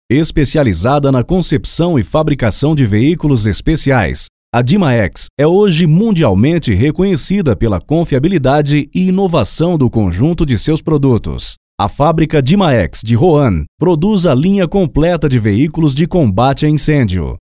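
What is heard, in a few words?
Medical Narrations, Brazilian Portuguese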